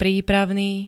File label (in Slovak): "prípravný", slovenské; práv. p-é konanie